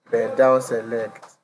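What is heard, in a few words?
"bend down select", Nigerian Pidgin, male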